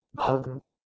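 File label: "bheh2gh", Proto-Indo-European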